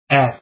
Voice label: "f", afrikaans alphabet